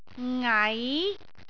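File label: "ngai2", Cantonese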